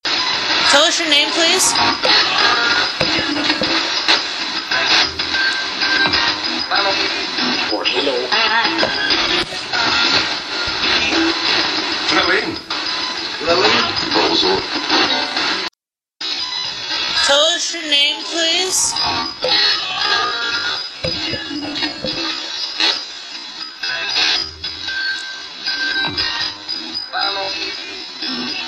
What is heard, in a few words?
radio voices FM band